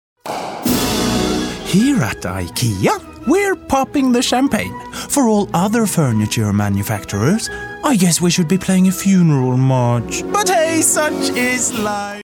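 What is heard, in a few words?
Norwegian male voice over, English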